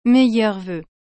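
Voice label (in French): "Meilleurs vœux", French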